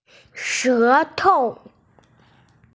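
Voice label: "shetou", Mandarin Chinese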